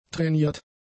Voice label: "trainiert", German; adjectives

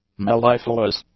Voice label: "mellifluous", english; adjectives

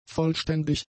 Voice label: "vollständig", German; adjectives

